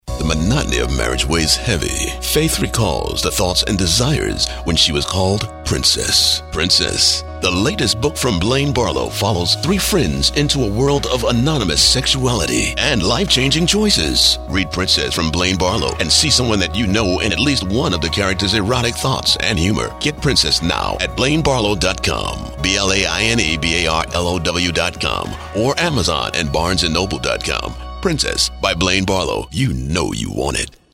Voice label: Radio Ad; Princess